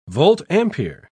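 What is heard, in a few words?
"volt-ampere", British English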